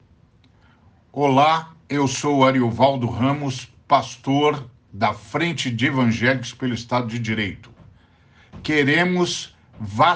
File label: spot; divida publica